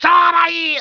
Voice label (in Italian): Monaco 2, Voce del monaco tibetano; Il JukeBox di Lara